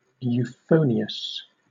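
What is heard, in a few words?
"euphonious", British English; pronunciations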